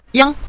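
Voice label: "yang", Mandarin; 太阳; tài yang; sun; sunlight; sunshine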